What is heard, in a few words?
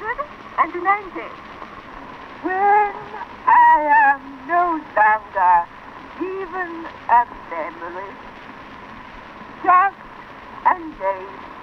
Florence Nightingale voice recording